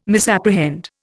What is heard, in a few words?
"misapprehend", Indian